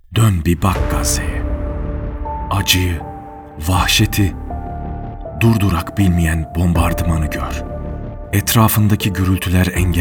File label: voiceover